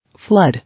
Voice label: "Flood", English